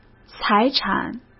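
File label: "财产", Mandarin Chinese; hsk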